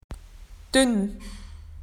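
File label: "thin", Australian English; PictureDictionary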